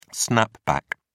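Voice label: "snapback", British English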